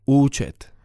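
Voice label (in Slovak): "ucet", Slovak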